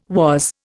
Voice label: "was", Indian